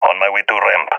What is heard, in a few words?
omw ramp, balkan; vo; balkan epic